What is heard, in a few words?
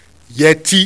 "yeti", Yoruba; CONSONANTS; yeti-yanmuyanmu-ayo